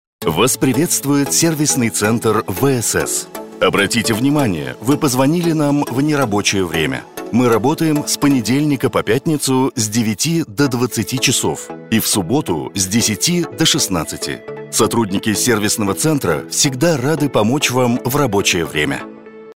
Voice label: Мужской бодрый голос, располагающий к себе; Голосовое приветствие, автоответчик или меню IVR

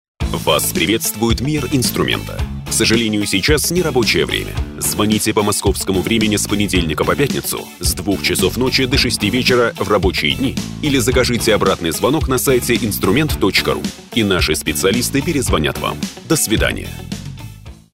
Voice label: Мужской солидный голос; Голосовое приветствие, автоответчик или меню IVR

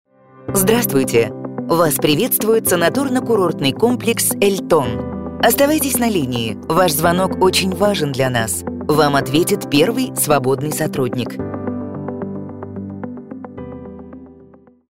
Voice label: Женский приятный молодой голос; Голосовое приветствие, автоответчик или меню IVR

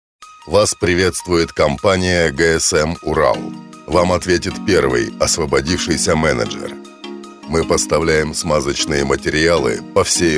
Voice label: Мужской солидный имиджевый голос; Голосовое приветствие, автоответчик или меню IVR

